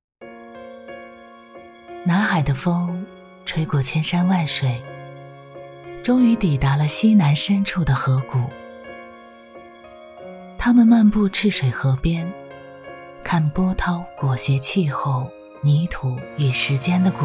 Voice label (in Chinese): 女 走心